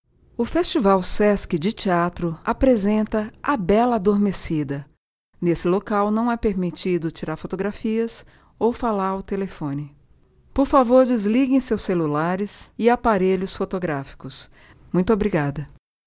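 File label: Portuguese Brazilian F IVR, female; voice over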